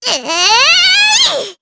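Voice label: Casting, Japanese; Rehartna; kingsraid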